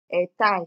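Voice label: "headtie", Nigerian Pidgin, female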